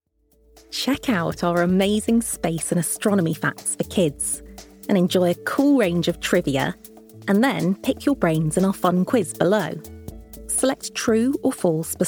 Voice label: eLearning/Training Female English (British)

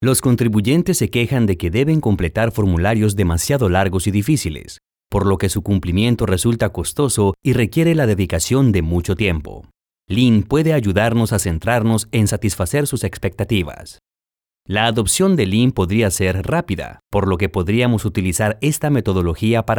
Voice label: Narration Male Spanish (Latin American)

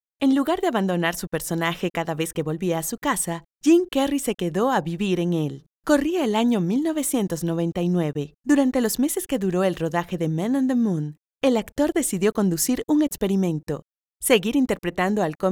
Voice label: Documentaries Female Spanish (Latin American)

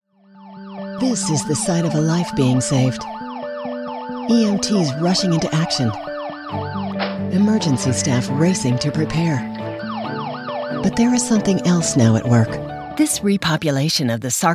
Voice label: eLearning/Training Female English (American)

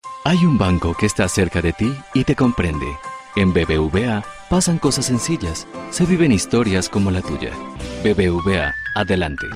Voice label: eLearning/Training Male Spanish (Latin American)

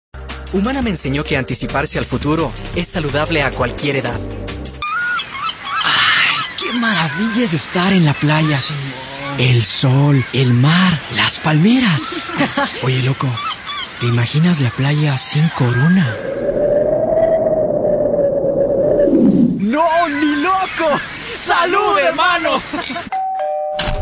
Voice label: TV shows and Movies Male Spanish (Latin American)

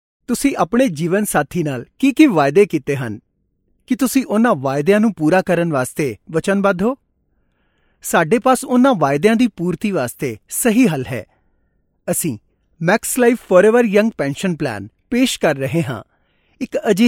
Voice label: eLearning/Training Male Punjabi

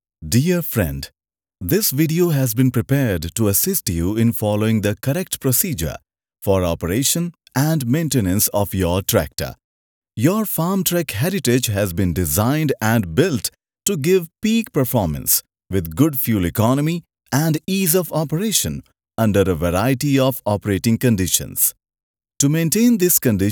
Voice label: eLearning/Training Male English (Indian)

